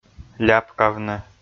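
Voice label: "ляпкавны", Komi, male; kpv audio dict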